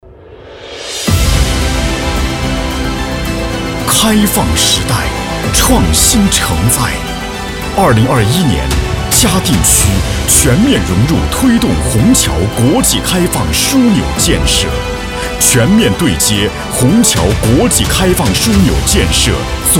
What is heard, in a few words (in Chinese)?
男, 地产, 大气磅礴激情浑厚, 国际化地产企业商务广告片